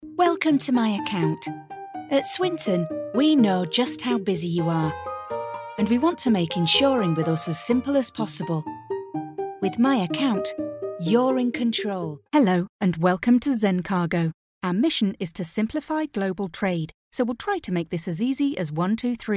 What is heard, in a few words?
english female voices british accent